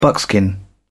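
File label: "buckskin", English, British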